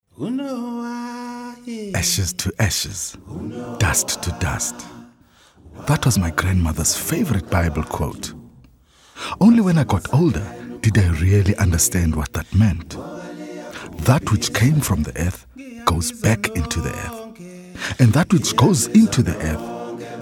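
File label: english South African male commercial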